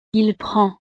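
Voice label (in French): "il prend", French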